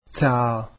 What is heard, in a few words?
"taa", Arabic alphabet